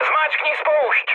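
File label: attack position, Czech, mood high; War Thunder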